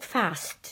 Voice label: unvoiced fricative